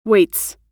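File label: "waits", American English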